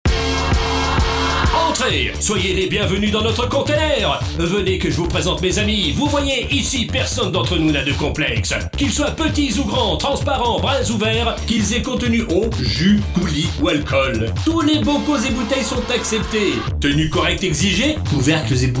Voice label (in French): spot radio recyclage verre